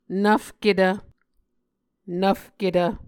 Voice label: "nvfketv", Muscogee

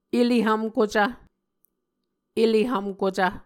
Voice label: "ele hvmkucv", muscogee

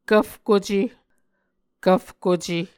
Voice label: "kvfkuce", Muscogee

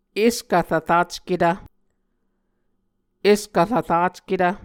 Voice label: "eskvrvracketv", Muscogee

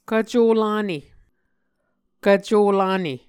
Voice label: "kvco lane", Muscogee

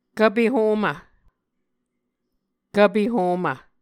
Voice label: "kvpe homv", Muscogee

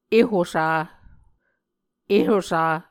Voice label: "ehosa", muscogee

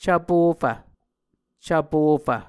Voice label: "cvpofv", muscogee

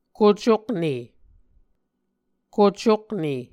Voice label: "kocokne", Muscogee

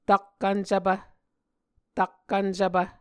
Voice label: "takkvncvpv", Muscogee; Mvskoke Words with Repeated Consonants; Onvkv Ohhvyayices; A Dictionary of Creek/Muskogee; SIL International

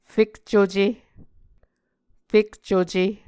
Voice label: "fekcuce", muscogee; Mvskoke Words with Voicing Consonants; A Dictionary of Creek/Muskogee; SIL International; Onvkv Ohhvyayices

